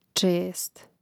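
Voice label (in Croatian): "čest", Hrvatski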